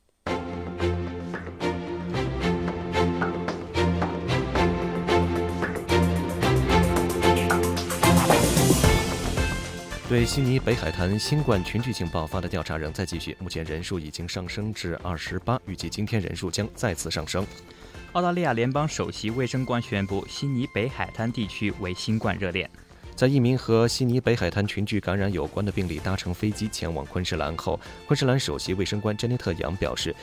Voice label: mandarin morning news; SBS早新聞（12月19日）; SBS 普通話電台